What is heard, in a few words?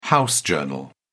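"house organ", British English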